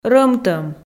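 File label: "рӧмтӧм", Komi, female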